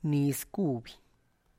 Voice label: "niz kuby", Tlacochahuaya Zapotec; newly harvested corn; (Spanish) mazorca nueva